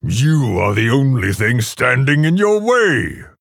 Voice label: Male Orc MIRROR START